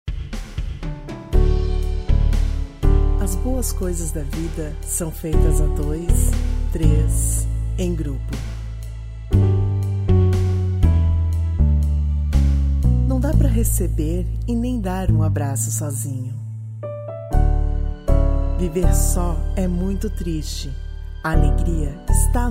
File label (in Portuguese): spot